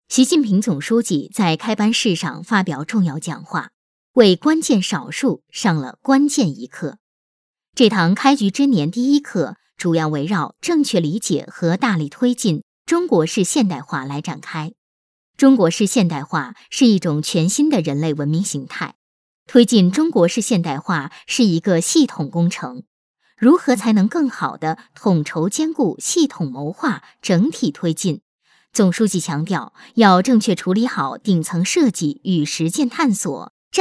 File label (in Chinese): 女 新闻类型片 中国式现代化